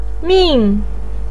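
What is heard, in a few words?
"min", Mandarin Chinese fourth tone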